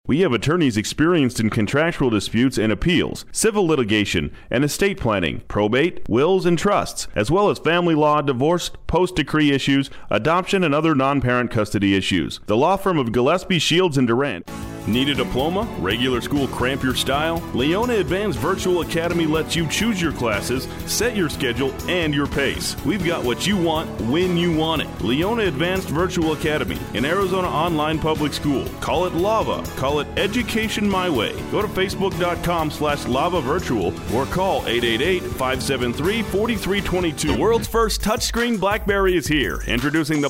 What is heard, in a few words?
Soft Sell